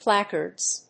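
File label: "placards", English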